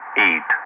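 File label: "8", English, Wingman; Ka-50; Digits; DCS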